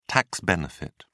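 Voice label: "tax benefit", British English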